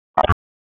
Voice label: Police Tac, p25; wake